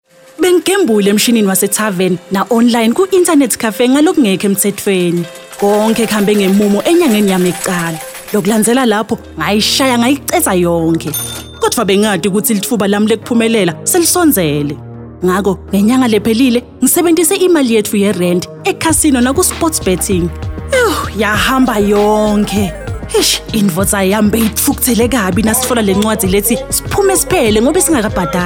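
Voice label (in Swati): Swati, Awareness Campaigns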